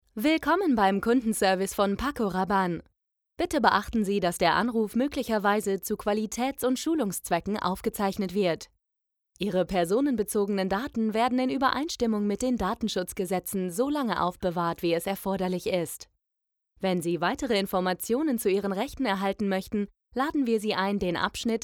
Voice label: german ivr

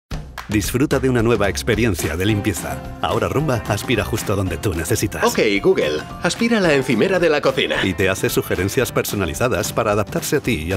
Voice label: Spanish promos

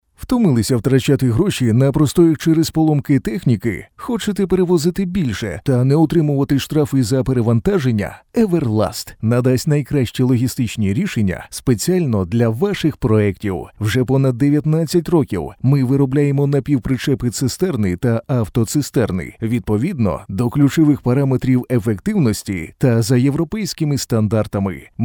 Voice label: ukrainian podcasts

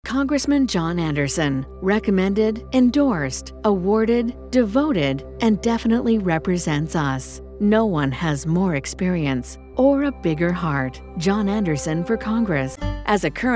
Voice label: english canadian political ads

